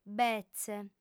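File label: "betze", lingua sarda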